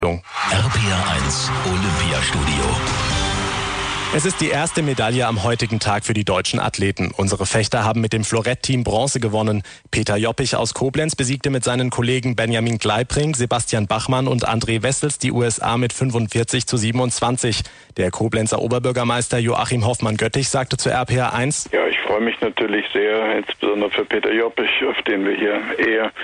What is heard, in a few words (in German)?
Nachrichten; RPR1; 21 Uhr